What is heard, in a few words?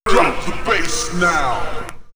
Vox Drop D Bass Now, house rap vocals; X-Static Goldmine